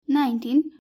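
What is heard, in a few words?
"nineteen", English; grade1; math; basic subtraction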